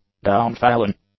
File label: "downfallen", English; adjectives